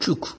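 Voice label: "chuk", Nigerian Pidgin, male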